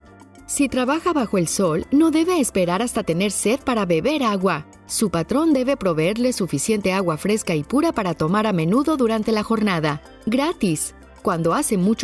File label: Spanish Radio